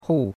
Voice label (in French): "hou4", chinois; Cours-de-Chinois